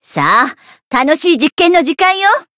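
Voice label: variable chant, Japanese; ashuthia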